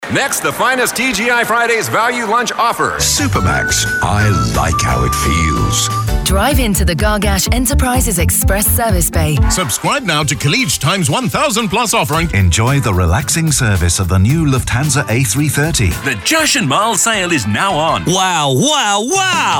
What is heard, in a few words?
radio English; radio showreel; AUDIO POST Production